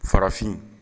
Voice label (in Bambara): farafin, Bambara